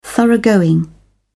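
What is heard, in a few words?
"thoroughgoing", British English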